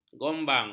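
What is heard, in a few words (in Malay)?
"gombang", Malay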